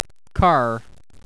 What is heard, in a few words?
car usa; CrazyEnglish